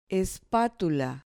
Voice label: "espatula", Spanish